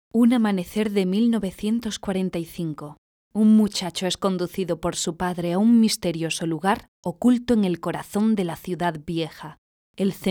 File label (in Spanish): AUDIOLIBRO